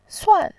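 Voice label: "suan", Mandarin Chinese, fourth tone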